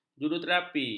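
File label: "juruterapi", Malay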